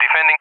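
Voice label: "defending" English, speech; DCS; Allied Flight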